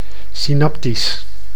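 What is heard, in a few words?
"synaptisch", Dutch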